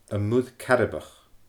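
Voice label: "Am Muir Caraibeach", Scottish Gaelic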